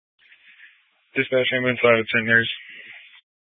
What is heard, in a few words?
Grand Junction Fire Department Dispatch